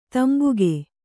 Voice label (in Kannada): "ತಂಬಾಕು", Kannada